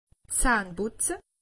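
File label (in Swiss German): "zahnputze", Alsatian; medecine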